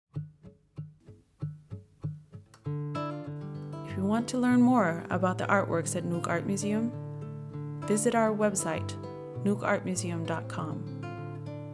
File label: English; Nuuk Art Museum; audio-guide